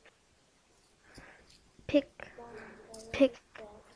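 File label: "pick", spoken word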